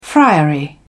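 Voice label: "friary", British English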